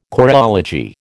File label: "choreology", American